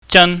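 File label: "jan", Cantonese, high falling tone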